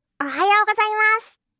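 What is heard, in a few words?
"ohayou gozaimasu", Japanese; aisatsu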